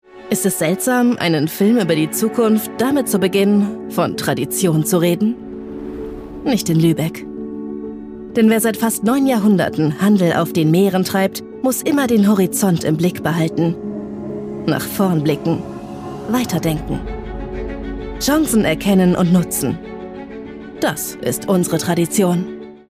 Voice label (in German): Imagefilm Hafen; Luebeck; LOFT VOICES